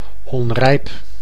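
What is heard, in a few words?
"onrijp", Dutch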